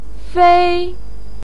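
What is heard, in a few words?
"fei" first tone, Mandarin Chinese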